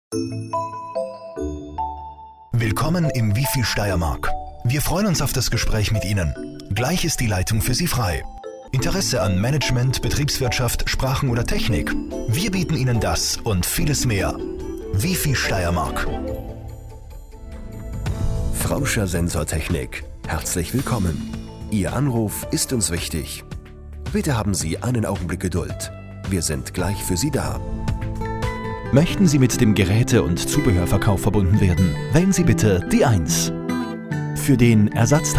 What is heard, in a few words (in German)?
Telefonbaender